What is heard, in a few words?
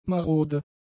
"marode", German; adjectives